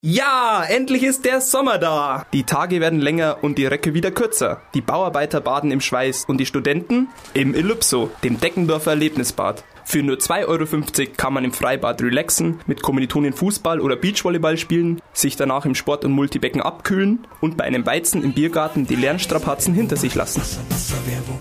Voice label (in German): Werbung; Elypso